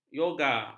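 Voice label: "yoga", Malay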